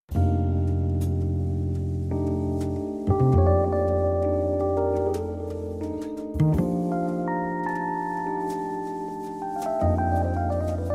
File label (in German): Raerener Mundart; Laienbühne und 33 Jahre Altweiberkomitee